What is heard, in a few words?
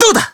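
Voice attack, Japanese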